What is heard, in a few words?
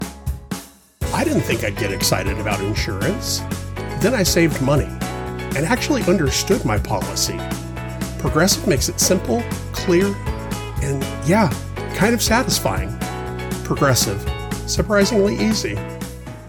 Commercial VO; Progressive; Phoenix AZ; home studio